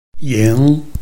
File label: "ying3", Chinese